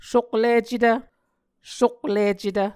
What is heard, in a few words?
"soklicetv", Muscogee